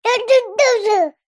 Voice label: human child 15 months boy say word jibberish; zapsplat